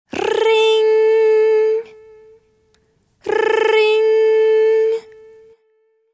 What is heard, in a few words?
Riiiing Female Voice